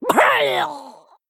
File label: Female Murloc Death